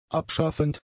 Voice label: "abschaffend", German; adjectives

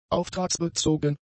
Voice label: "auftragsbezogen", German; adjectives

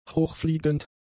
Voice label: "hochfliegend", German; adjectives

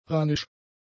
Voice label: "runisch", German; adjectives

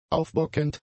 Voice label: "aufbockend", German; adjectives

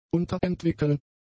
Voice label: "unterentwickeln", German; adjectives

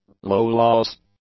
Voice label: "low-loss", English; adjectives

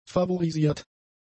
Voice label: "favorisiert", German; adjectives

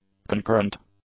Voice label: "concurrent", English; adjectives

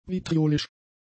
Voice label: "vitriolisch", German; adjectives

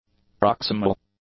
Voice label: "proximal", english; adjectives

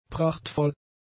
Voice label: "prachtvoll", German; adjectives

